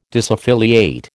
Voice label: "disaffiliate", American